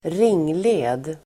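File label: "ringled", Swedish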